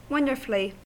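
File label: "wonderfully", US English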